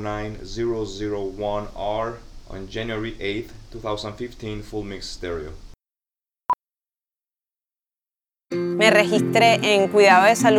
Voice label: Testimonial